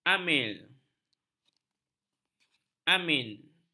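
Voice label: "amil", Malay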